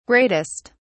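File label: "greatest", British English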